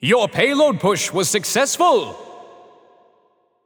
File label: Announcer Town Crier Success Payload Push; paladins